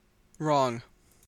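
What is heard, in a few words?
"wrong", Canadian English; pronunciations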